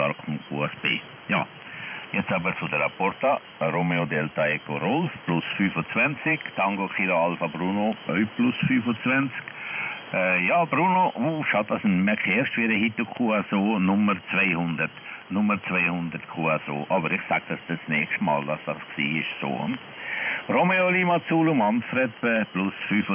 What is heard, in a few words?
HEIL BM-17 FT-991A 3.768.96 MHz LSB